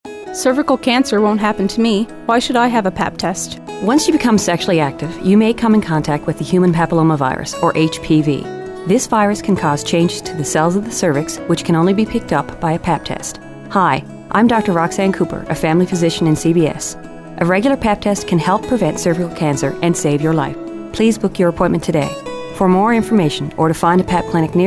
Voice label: Radio Ad; Pap Test Awareness Week